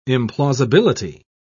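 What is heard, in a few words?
"implausibility", British English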